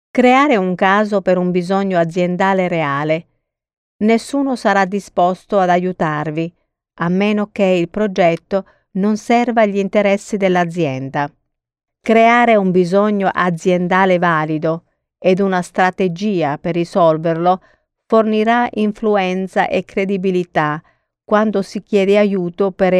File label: Italian, Voice Samples, Foreign Language